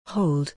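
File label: "hold", English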